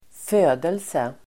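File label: "födelse", Swedish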